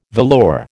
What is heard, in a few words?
"velour", American